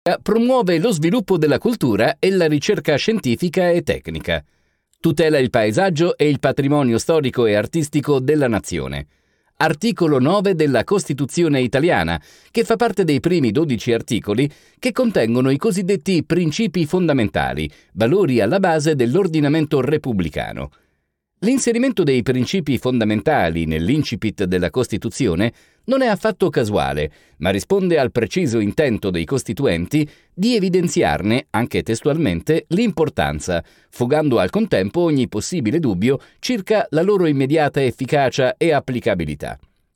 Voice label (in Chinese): 意大利语男 外语 小语种